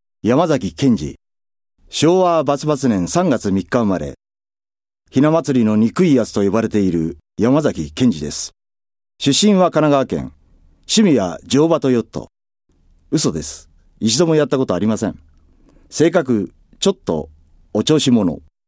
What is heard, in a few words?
free talk, male voice